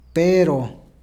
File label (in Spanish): "pero", Peru Cuzco